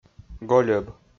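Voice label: "гольӧб", Komi, male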